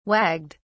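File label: "wagged", British English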